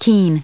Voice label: "keen", en us